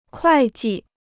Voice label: "会计", Chinese

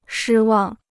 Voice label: "失望", Chinese

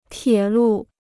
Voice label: "铁路", Chinese

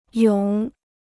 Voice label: "涌", Chinese

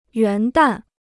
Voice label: "元旦", Chinese

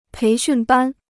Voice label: "培训班", Chinese

